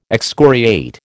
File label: "excoriate", American